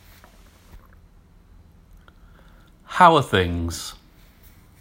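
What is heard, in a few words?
How are things, British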